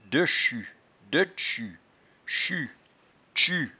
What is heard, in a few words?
"dechus", patois jura